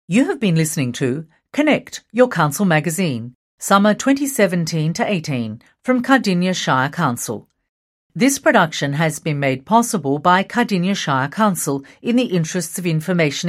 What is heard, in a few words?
closing announcement